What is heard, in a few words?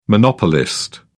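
"monopolist", British English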